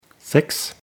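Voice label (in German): "sechs", German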